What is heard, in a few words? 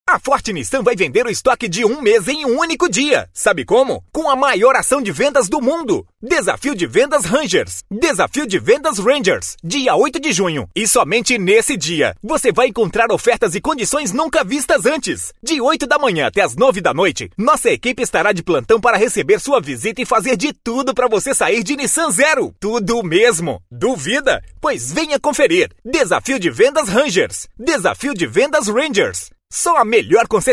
portuguese brazilian animation